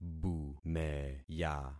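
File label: "bumeya", concatenated syllables; Affixing experiment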